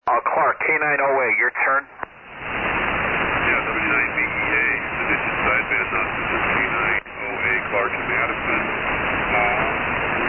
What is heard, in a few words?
Collins 32S-3 75S-3 & 30L-1 Amp; Madison,Wi.